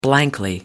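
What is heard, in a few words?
"blankly", British English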